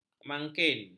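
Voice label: "mangkin", Malay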